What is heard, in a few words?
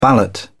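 "ballot", British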